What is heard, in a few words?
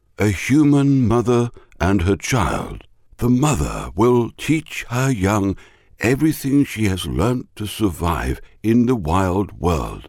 senior voice, uk voiceover